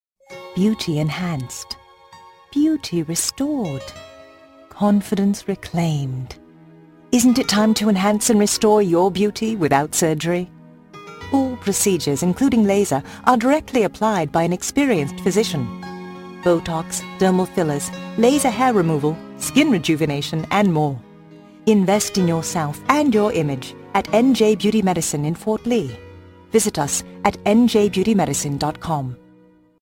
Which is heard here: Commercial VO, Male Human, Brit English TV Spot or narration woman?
Brit English TV Spot